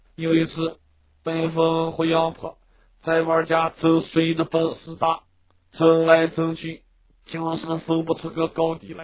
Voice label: Taiyuan mono